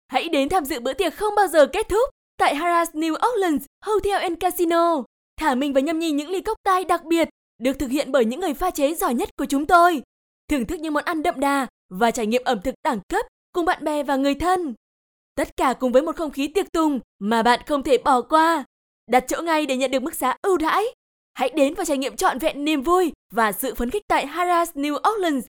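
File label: Vietnamese North, female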